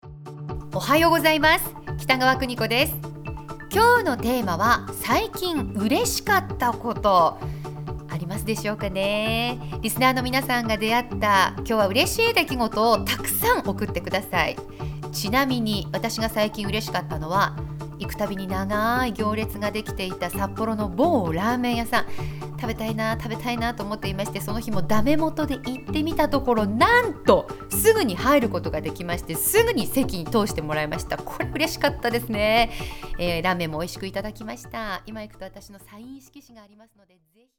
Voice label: voice radio